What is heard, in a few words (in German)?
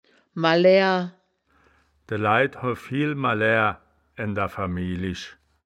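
"malaer", wittlicher woerterbuch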